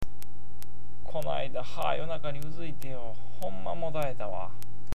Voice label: "modaeru", awaji-ben

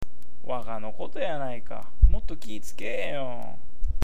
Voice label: "waga", awaji-ben

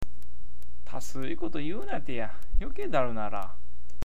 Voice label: "tasui", awaji ben

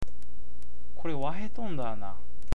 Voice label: "waheru", awaji-ben

